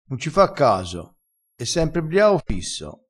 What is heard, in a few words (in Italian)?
"briao fisso", crespinese